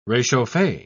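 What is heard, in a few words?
"rechauffe", British English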